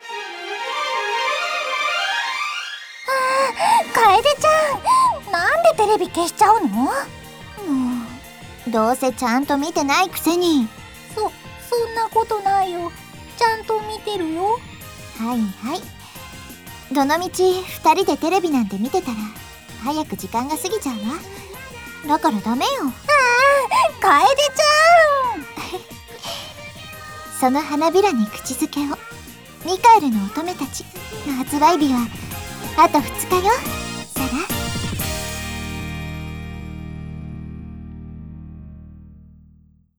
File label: Voice Drama